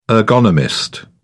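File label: "ergonomist", British English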